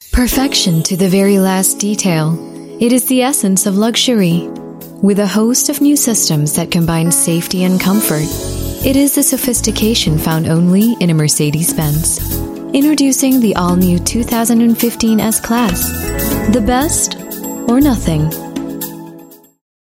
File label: US English, Female; Mercedes Benz S-Class